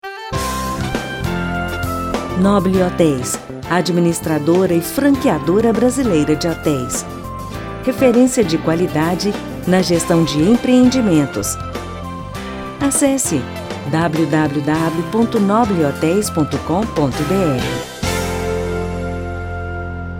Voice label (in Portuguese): radio; nobile hoteis